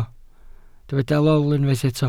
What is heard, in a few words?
"lol", Welsh